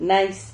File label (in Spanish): "nais", Silabas